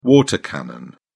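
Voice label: "water cannon", British English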